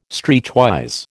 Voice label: "streetwise", American

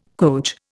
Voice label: "coach", Indian

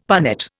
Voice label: "punnet", Indian; Dictionary

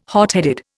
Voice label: "hotheaded", Indian

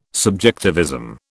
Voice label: "subjectivism", British

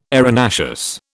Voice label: "arenaceous", British

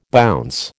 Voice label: "bounce", American

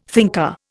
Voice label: "thinker", Indian

